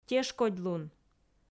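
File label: "тешкодьлун", Komi, female; audio dict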